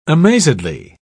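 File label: "amazedly", English, British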